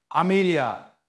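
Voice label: Voice Acting, Spanish, male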